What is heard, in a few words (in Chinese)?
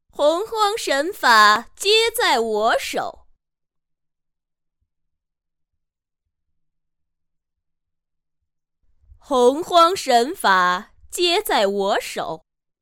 女35; 乱舞九天玄女